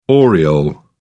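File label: "oriole", British English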